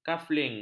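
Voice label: "kafling", Malay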